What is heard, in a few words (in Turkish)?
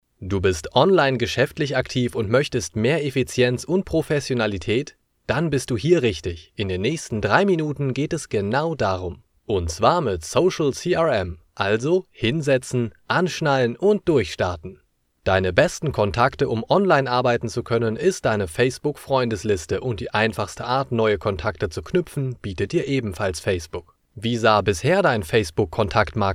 Almanca